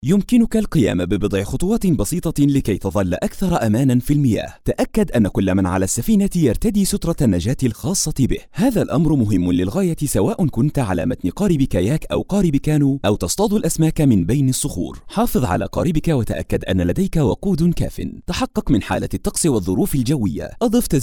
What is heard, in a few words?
Boat Safety Arabic